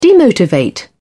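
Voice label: "demotivate", British English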